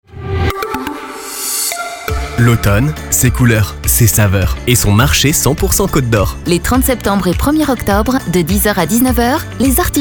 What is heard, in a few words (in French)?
Spot; Marche 100 Cote dOr